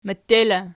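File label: "mette" locative, Archi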